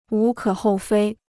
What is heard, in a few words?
"无可厚非", Chinese